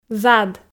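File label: "зад", Komi, female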